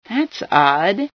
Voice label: that's odd, female sci

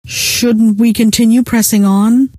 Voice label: should press on, scientist female

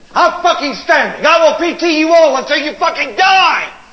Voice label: chat; sgthartmann

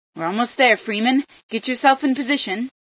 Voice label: position, female

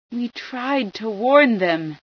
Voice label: scan, female

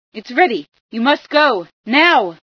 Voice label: port open, female

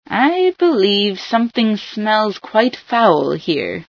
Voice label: something foul, female sci

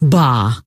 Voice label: "bah", scientist female

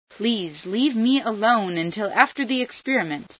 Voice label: alone, female sci

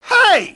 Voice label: check fire, grunt; nimble grunt

